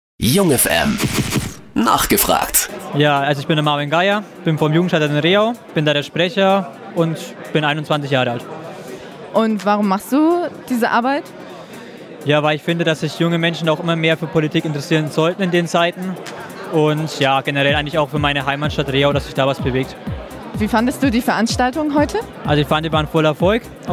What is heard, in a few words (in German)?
Interview Jugendstadtrat mit Jingle und Musik; Rehau